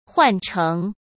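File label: "换成", Mandarin Chinese; hsk; chinese-flashcards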